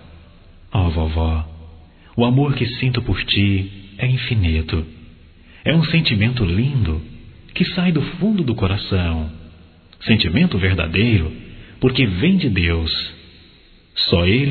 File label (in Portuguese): Aniversário Avó Masculino; Aniversarios; Telemensagens 2017